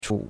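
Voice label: "chu3", Chinese; Cours-de-Chinois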